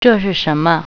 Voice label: what, mandarin

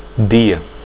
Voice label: day, portuguese

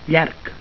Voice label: "llarg", catalan

